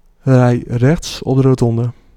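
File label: Try U Turn, Dutch male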